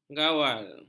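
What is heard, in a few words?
"gawal", Malay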